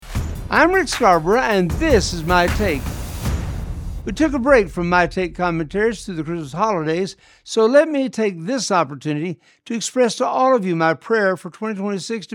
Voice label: Radio Spot; New Years Resolutions